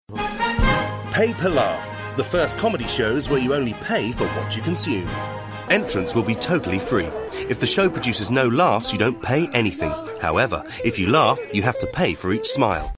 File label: male british english comedy comercial